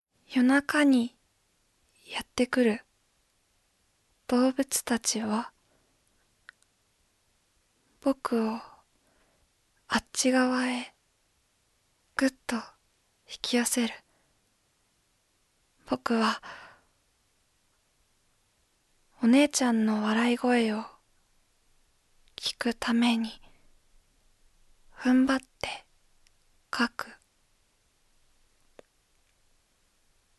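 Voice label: Voice Sample Narration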